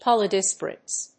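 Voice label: "polydispersity", English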